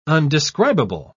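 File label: "undescribable", British English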